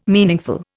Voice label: "meaningful", Indian

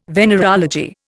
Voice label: "venereology", Indian

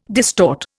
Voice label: "distort", Indian

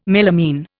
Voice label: "melamine", Indian

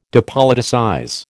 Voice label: "depoliticize", American

